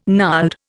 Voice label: "gnarled", Indian